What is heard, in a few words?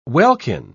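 "welkin", British English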